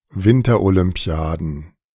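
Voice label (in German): "Winterolympiaden", German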